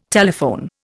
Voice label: "telephone", Indian